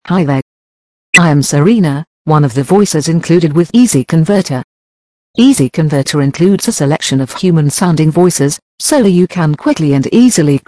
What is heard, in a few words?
Expressive; Expressive Serena; easy converter